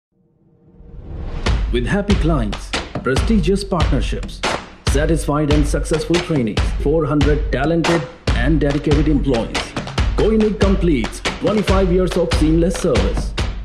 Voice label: English Corporate Narration